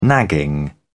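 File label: "nagging", British English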